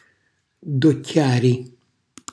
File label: "docchiari", Calabrese; lanciare il malocchio